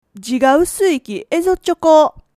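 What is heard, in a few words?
"ezoru", Japanese dialect, woman; hogen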